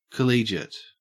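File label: "collegiate", Australian English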